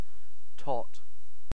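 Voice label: "tot", British English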